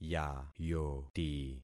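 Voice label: "yayoti", concatenated syllables; Affixing experiment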